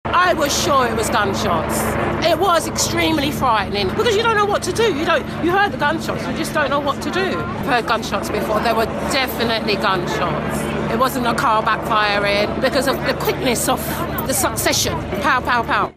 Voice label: witness account of the London Bridge stabbing